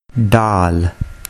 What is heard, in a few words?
"Daal", urdu